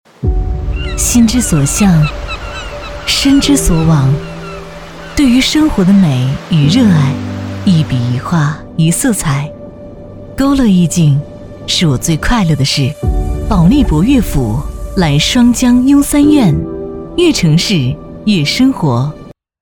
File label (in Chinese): 地产广告